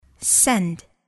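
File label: "send", English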